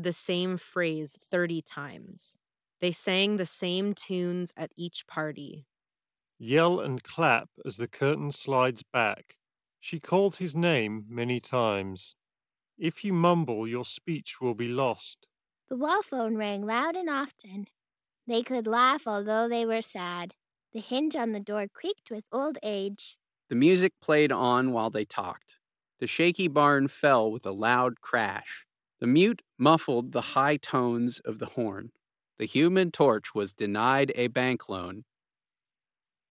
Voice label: Razer Barracuda X Ideal microphone sample

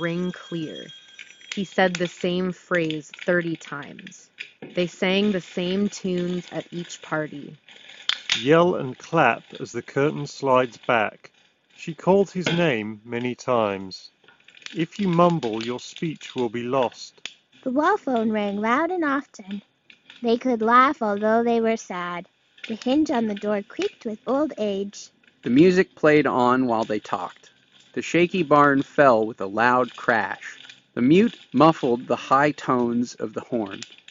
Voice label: Sport Office microphone sample; Sennheiser Momentum

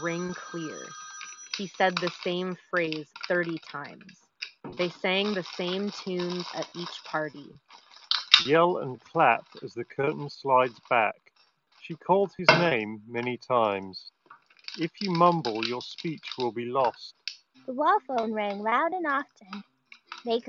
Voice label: JBL Live 660NC Office microphone sample

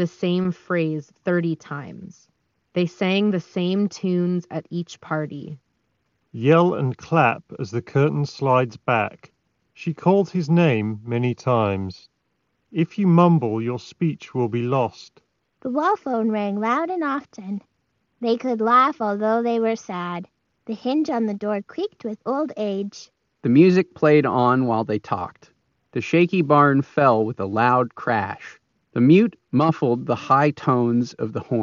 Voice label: Sony WH 1000XM3 Ideal microphone sample

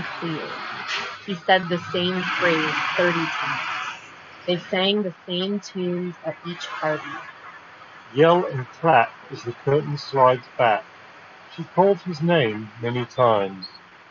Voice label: JLab Epic Air Sport ANC Street microphone sample

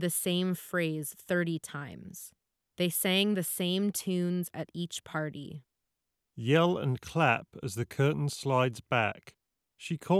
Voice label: ideal microphone sample

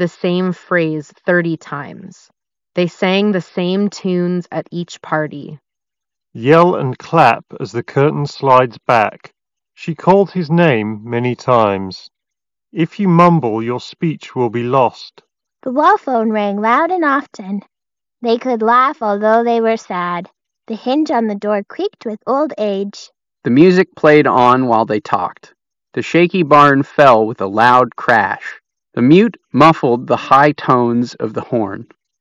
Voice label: Ideal microphone sample; Samsung Galaxy Buds 2

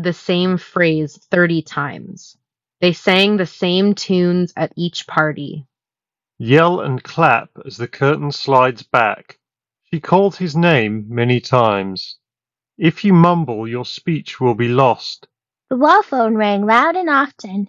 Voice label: Ideal microphone sample; 1MORE Pistonbuds Pro Q30

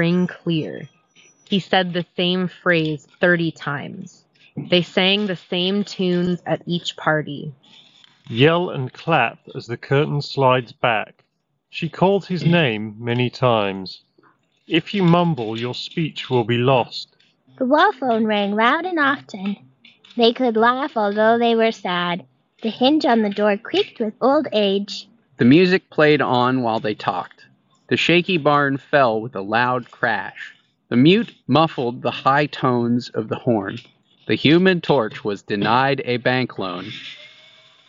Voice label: Office microphone sample; Anker Soundcore Liberty 3 Pro

